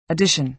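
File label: "addition", American English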